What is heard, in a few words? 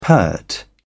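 "pert", British English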